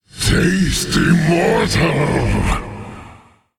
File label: Male Monster Attack